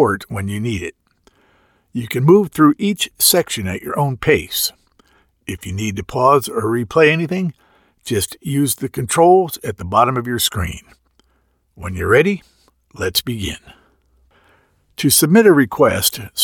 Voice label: eLearning Training Voiceover